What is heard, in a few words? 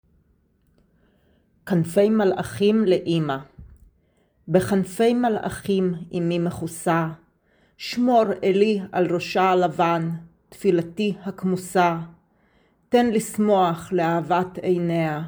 hebrew; Hebrajų (HE)